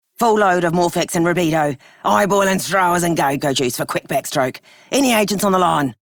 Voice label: Female Radio, en; Dialog; Warframe; Solaris Venus

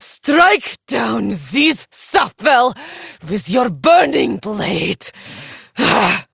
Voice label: Villagers Fem en

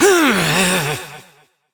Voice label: Frustration; Battle Barks; Evil Twin; Warframe; EntratiDoppelganger

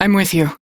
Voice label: Crew Follow, Female; en; Warframe; Railjack Crew; New Loka

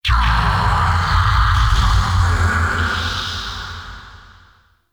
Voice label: Weather Angry Voice; Ambience; Gameplay; Duviri

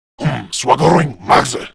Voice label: Reload, Male; Warframe; Grineer Male; Battle Barks

